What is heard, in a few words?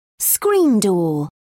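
"screen door", British English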